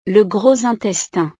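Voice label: "le gros intestin", French; partes del cuerpo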